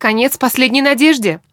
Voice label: kill wounded, woman; Stalker Anomaly; characters voice human help